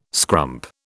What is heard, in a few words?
"scrump", British